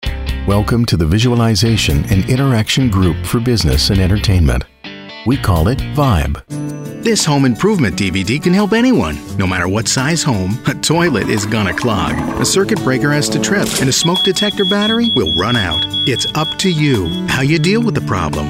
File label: Corporate Narration